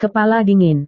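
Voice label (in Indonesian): "kepala dingin", Indonesian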